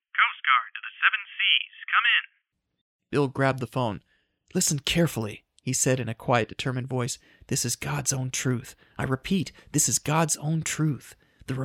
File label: VO Audiobook Scifi Horror